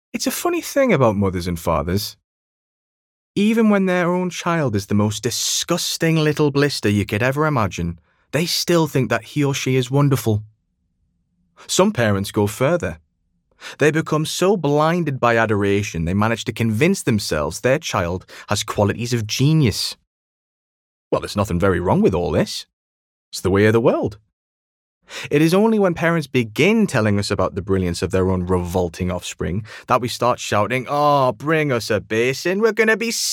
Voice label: Audiobook